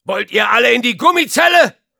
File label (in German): Soldier jeers, de